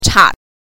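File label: "cha", Mandarin Chinese pinyin, neutral tone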